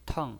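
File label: "teng", pinyin voice, fourth tone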